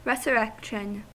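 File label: "resurrection", US English; pronunciations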